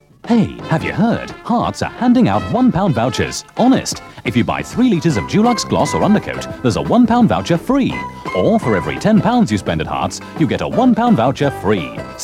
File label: Radio Advert, Bank Holiday; Radio Hallam; Harts